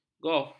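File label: "golf", Malay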